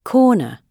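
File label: "corner", British English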